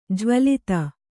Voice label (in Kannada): "ಜ್ವಲಿತ", kannada